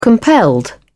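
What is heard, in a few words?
"compelled", British English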